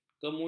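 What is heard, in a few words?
"kemudu", Malay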